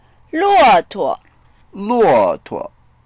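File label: "luo4tuo", Mandarin Chinese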